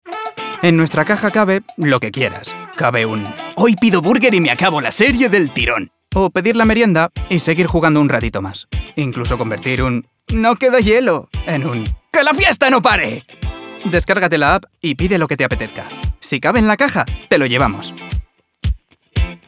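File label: comercial, Spanish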